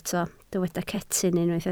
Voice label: "cetyn", Welsh